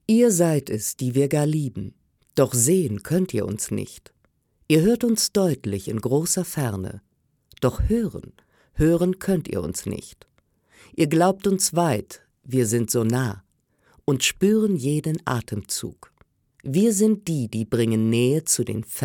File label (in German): sachlich, frauen